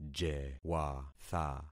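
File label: "jewatha", concatenated syllables; Affixing experiment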